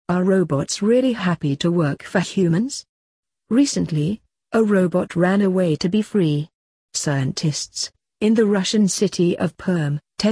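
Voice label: British; robot runs away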